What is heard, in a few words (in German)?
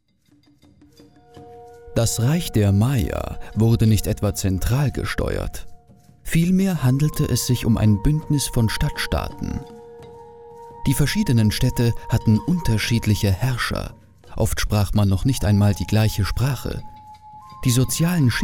Dokumentation